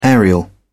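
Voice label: "aerial", British English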